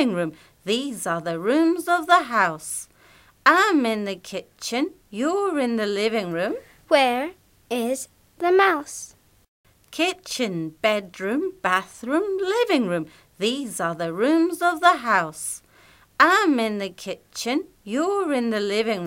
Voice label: jazz chant; unit 9